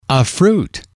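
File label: "a fruit", male, English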